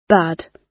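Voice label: "bad", British English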